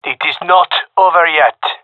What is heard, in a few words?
round losing, gendermerie male